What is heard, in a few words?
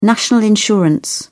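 "national insurance", British English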